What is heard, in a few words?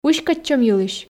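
"уськӧдчӧм йылысь", Komi, female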